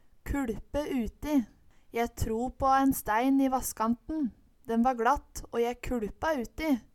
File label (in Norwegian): kuLpe uti, Numedalsmål; Je tro på en stæin i vasskantn, den va gLatt å je kuLpa uti